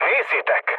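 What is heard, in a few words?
enemy detected, mood low, Hungarian; War Thunder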